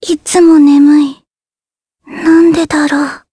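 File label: vox Japanese; Jane; kingsraid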